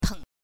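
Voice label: "teng5", Chinese; 暄腾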